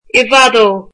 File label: far from, irish